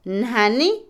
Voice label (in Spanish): Otomí, mujer; viga; Casa; INALI